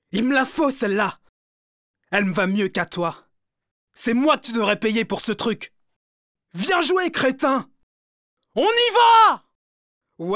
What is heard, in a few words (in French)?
french m character; voice demos